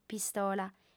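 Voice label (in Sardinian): "pistola", female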